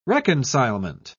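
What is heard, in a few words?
"reconcilement", British English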